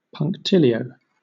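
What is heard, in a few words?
"punctilio", British English; pronunciations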